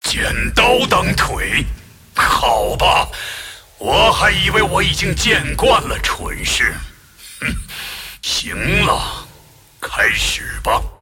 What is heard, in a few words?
move, zh